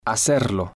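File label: "hacerlo", Spanish, male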